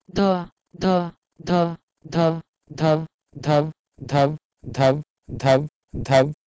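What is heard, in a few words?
Proto-Indo-European dhouh2 to Sanskrit dhav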